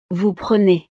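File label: "vous prenez", French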